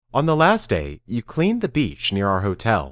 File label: Dictation